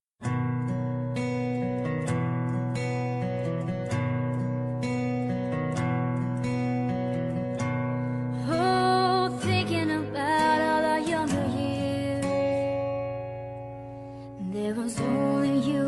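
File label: romantic ex, female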